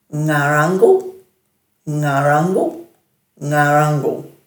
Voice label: "ngaranggal", Dhurga